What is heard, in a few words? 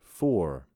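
"Four", male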